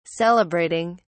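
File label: "celebrating", British English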